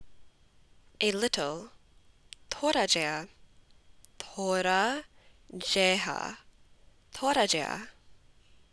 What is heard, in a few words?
Punjabi A little